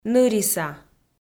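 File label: "ныриса", Komi, female; audio dict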